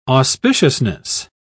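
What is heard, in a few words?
"auspiciousness", British English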